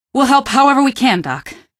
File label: squad train, female; npc; Garry's Mod; hl2